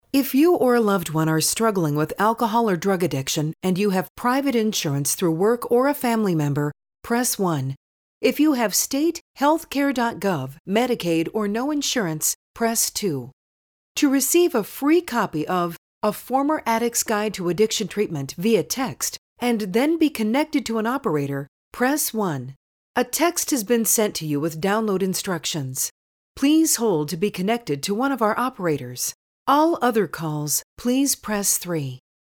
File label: Company Greetings; Drug Addiction